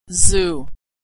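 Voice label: "zoo", American English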